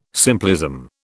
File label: "simplism", British